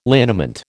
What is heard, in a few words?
"liniment", American